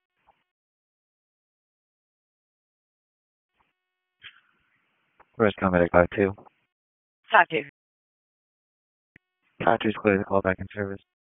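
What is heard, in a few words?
EMS DISP, radio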